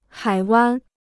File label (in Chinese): "海湾", Chinese